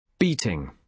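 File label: "beating", British English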